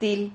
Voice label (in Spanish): "til", Silabas